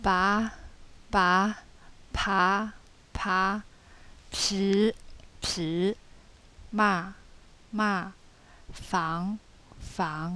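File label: consonants p, yancheng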